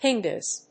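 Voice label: "pingas", English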